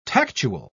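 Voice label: "tactual", British English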